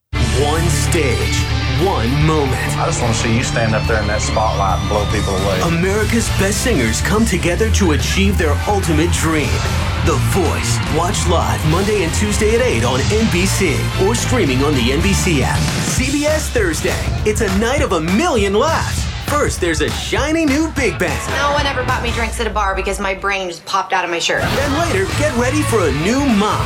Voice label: english american promos